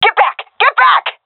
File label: request fallback, seal fem; vo; agents; Source Model Packs; Counter Strike Model Pack; CS2